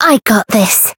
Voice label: variable chant; leviachan

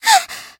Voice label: Voice damaged; yuna

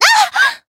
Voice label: Voice damaged, jp

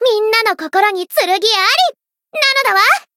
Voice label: variable chant, jp; thillelille

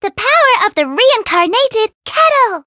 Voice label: Voice chant; myungwha

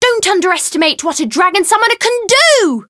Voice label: variable chant; tiramisu

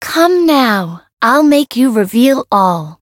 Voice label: variable chant; rosetta

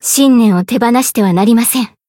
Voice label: voice jp; tsukiha; zodiac win second

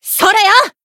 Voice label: Voice attack jp; kid

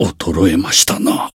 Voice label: win barely, jp

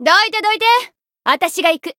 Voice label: chant, jp

